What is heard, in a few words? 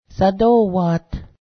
hunt, Cayuga